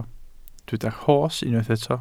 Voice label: "rhos", welsh